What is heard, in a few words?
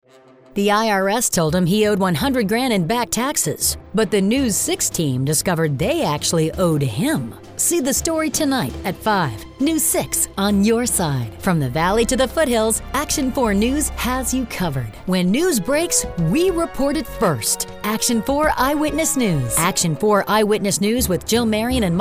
IMAGING